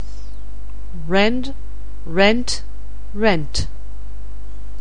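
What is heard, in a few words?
"rend", English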